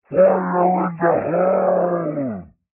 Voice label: fire in the hole slow